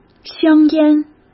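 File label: "香烟", Mandarin Chinese; chinese flashcards; hsk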